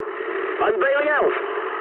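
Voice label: English bailout mood med; speech; War Thunder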